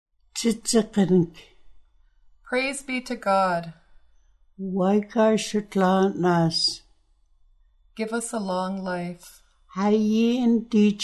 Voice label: Prayer with God, Nuu-chah-nulth language phrases; mono